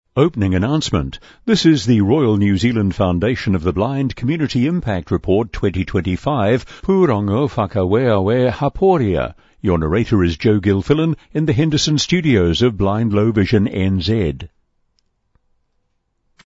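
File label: Opening announcement; annual reports in audio format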